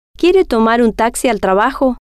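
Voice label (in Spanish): quiere Tomar Taxi Trabajo, spanish, female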